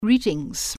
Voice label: "GREETINGS", german